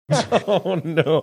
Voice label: laughing saying oh no